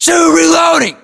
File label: inform reloading, US; battlechatter; english